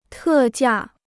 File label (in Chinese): "特价", Chinese